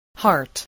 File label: "heart", English, spelling